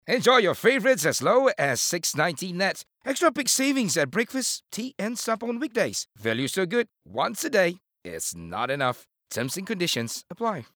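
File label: Announcer Casual VO English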